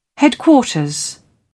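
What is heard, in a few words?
"headquarters", British English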